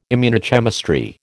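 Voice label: "immunochemistry", American English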